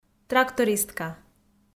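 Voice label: "трактористка", Komi, female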